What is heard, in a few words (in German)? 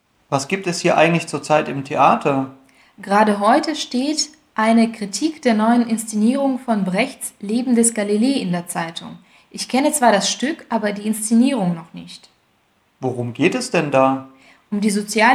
Dialog